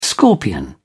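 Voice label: "scorpion", British English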